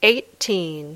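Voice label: "eighteen", English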